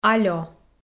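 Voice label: "алё", Komi, female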